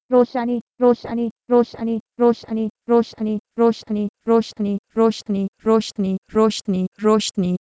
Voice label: Persian roshani to Urdu roshni

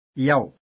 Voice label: "yau", Pashto; یو yau

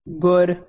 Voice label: "gur", Punjabi

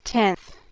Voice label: "teth", Anglo Saxon

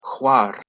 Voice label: "hwar", Proto-Germanic

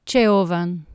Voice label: "ceorfan" Anglo Saxon

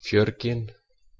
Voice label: "Fjorgynn" Icelandic

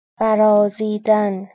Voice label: "baraazidan", Persian; برازیدن barozidan; “beautify”; bright

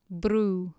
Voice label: "bru", Anglo Saxon

